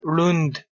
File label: "rund", Pashto